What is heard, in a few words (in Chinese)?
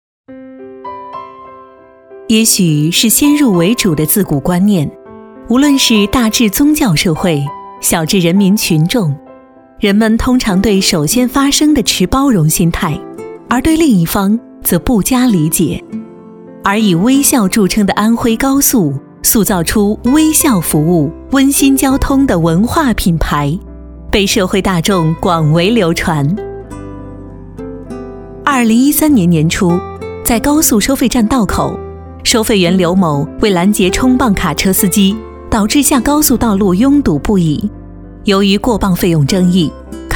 栏目 纪实栏目 高速, 女国; 安徽; 纪实栏目安徽高速